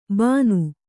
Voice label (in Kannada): "ಬಾನು", Kannada